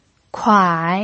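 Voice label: "kuai" third tone, pinyin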